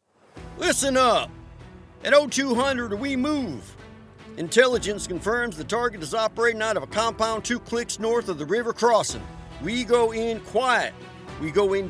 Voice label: Character Voice, Gruff Authoritative Military Detective Fantasy Western